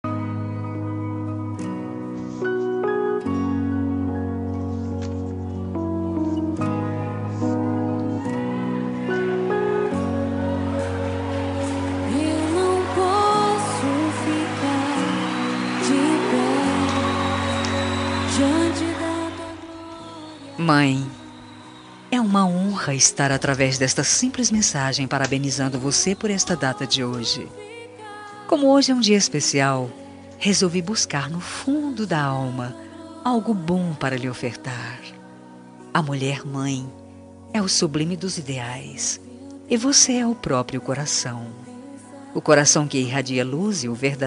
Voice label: evangelica fem; dia das maes para mae evangelica voz feminina

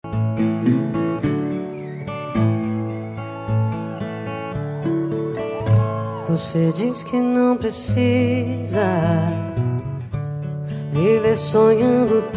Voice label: tio masc, Dia dos Pais para Tio – Voz Masculinas

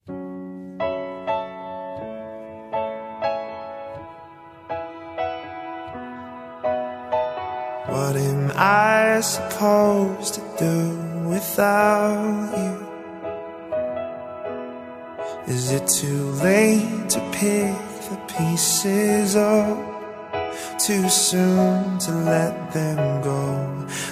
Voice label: dia dos namorados especial masculina; pessoa especial voz

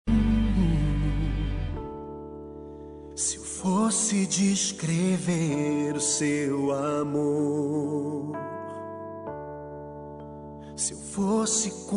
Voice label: voz inf masc mae; dia das maes para minha mae voz masculina infantil

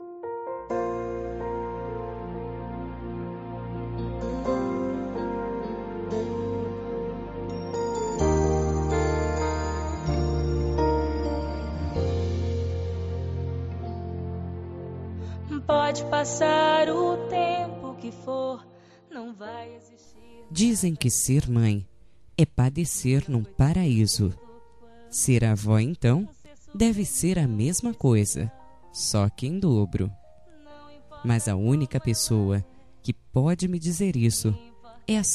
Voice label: dia mae avo fem; dia das maes para minha avo evangelica voz feminina

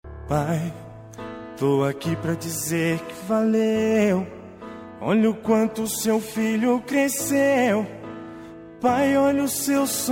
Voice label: masc, Dia dos Pais – Voz Masculina

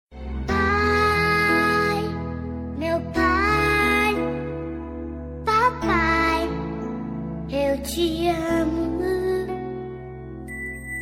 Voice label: dia dos pais feminina; Voz Feminina

